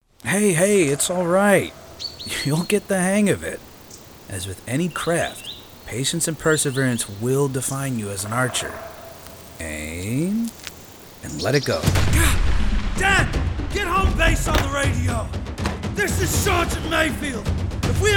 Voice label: Voiceover Animation; New York; Stewart Talent; Animation Demo